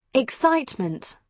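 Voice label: "excitement", British English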